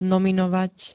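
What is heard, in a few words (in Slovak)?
"nominovať", Slovak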